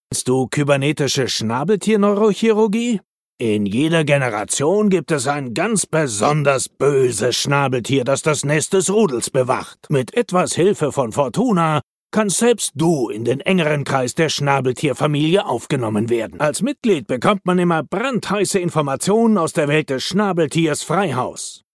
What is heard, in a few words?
male german commercial; ideasonora